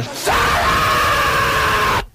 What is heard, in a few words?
shut up male; expletives